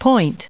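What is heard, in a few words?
"point", us English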